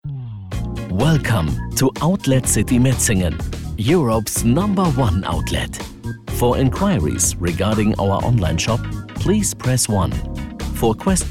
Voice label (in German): Ansage englisch; Mode – Fashion – Lifestyle – Modehaus – Outlet – Boutique; Telefonansage